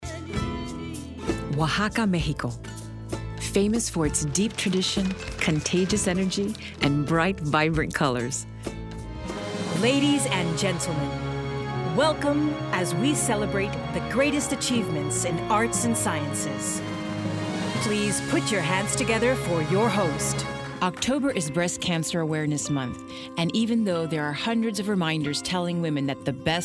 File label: Narration